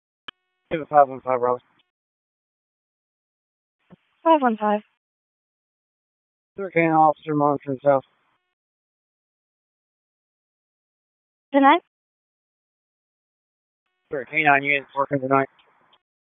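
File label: Police Southeast District Dispatch; Raleigh; wake p25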